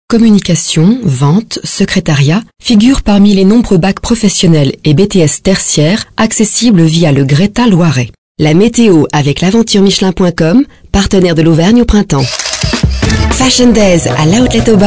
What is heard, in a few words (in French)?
femme